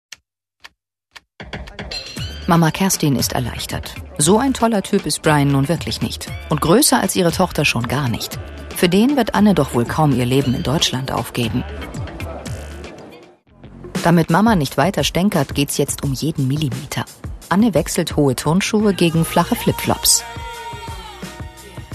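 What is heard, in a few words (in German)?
Kommentar Dynamisch; Fremdtake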